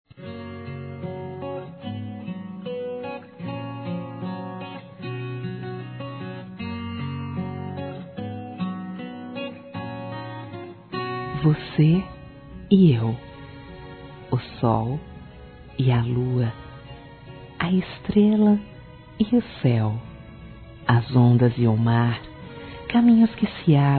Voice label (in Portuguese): amantes f; Dia Dos namorados; fem; Telemensagens 2017